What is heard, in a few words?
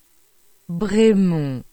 Bremont Pronunciation